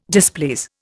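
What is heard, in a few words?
"displease", Indian English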